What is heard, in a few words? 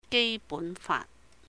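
"Gei Bun Faat", Cantonese; Basic Law; 基本法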